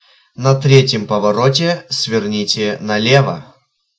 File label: 3rd Left, Russian, male